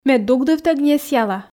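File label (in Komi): ме дугдывтӧг несъяла, Komi, female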